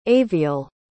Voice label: "aviel", pronounce